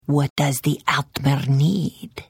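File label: Hello, Khajiit female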